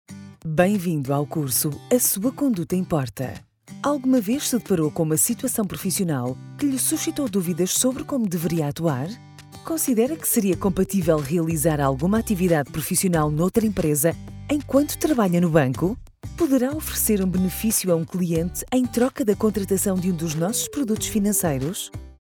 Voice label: female portuguese comercial; ideasonora

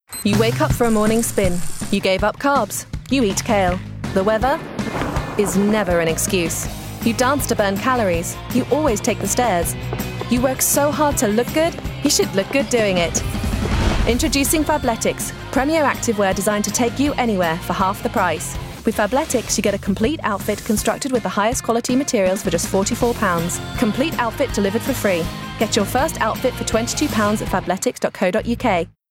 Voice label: female british english wear comercial

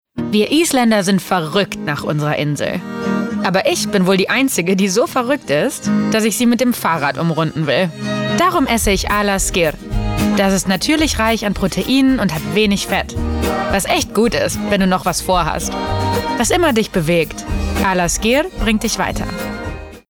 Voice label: female german comercial

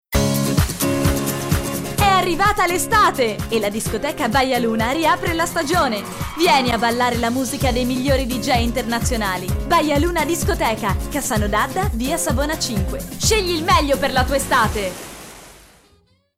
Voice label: female italian comercial; ideasonora

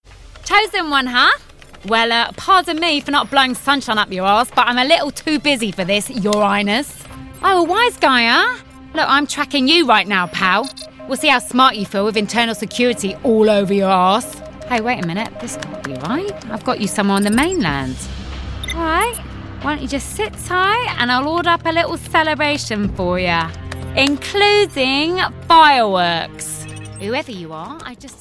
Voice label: female british english commercial

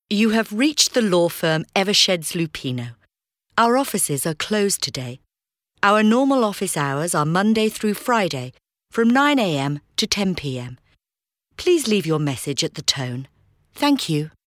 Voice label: female british english phone comercial; ideasonora

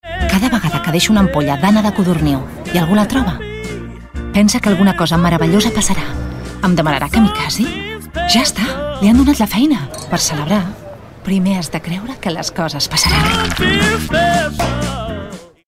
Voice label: female catalan drink comercial; ideasonora

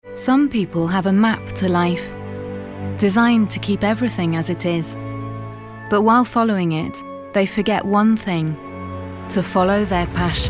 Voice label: female british english car comercial; ideasonora

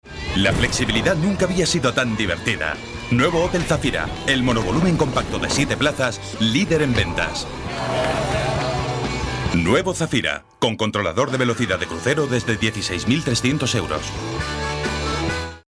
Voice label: male spanish car comercial; ideasonora

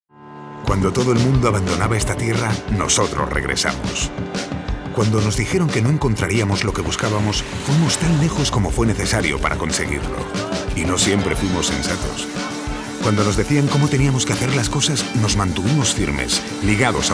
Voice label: male spanish comercial; ideasonora

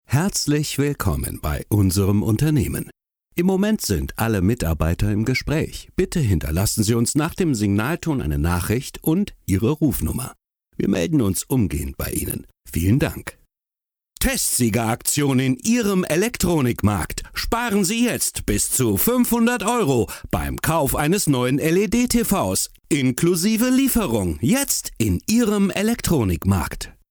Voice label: male german comercial; ideasonora

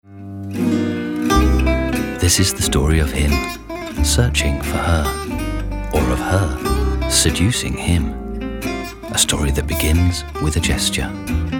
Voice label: male british english fragrance comercial; ideasonora

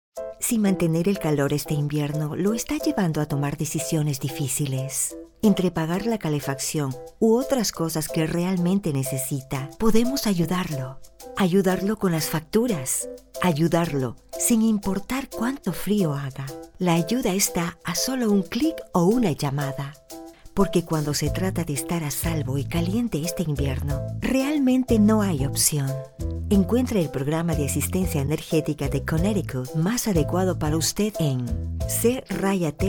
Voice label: female ecuatorian comercial; ideasonora

